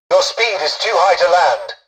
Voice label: tutorial dialogue, english; War Thunder